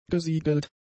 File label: "gesiegelt", German; adjectives